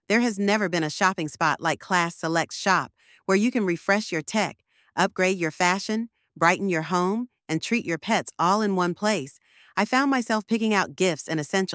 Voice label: voiceover